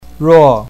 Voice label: "ruo4", pinyin